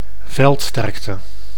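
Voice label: "veldsterkte", Dutch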